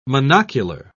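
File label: "monocular", English, British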